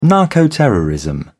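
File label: "narcoterrorism", British English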